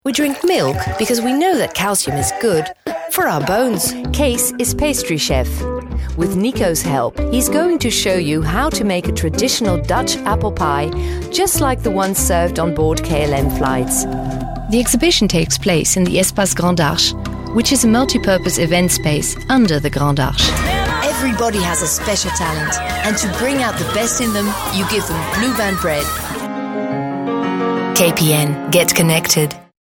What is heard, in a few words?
English Commercial